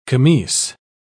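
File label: "camise", British English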